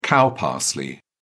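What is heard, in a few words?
"cow parsley", British English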